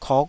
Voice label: "kok5", Taiwanese syllable

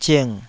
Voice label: "zing3", Taiwanese

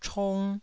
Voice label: "chong1", taiwanese

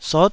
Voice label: "sot9", Taiwanese syllable

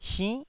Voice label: "sinnh9", taiwanese Syllables

